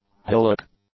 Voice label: "hillock", English; nouns

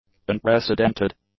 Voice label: "unprecedented", english; adjectives

